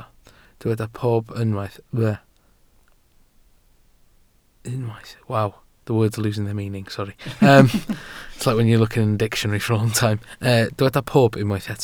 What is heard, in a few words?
"pob", Welsh